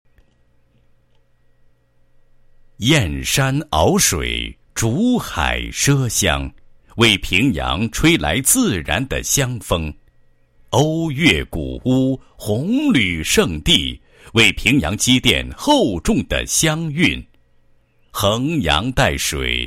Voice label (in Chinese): 男 解说 美丽乡村 美好生活 农村建设